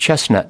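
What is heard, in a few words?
"chestnut", US English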